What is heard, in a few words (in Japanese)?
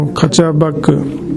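"kachabagu", 南山形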